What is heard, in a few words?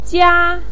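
"jia", Mandarin pinyin, first tone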